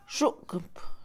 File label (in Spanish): oler, Mixe, Mujer; Percepción; INALI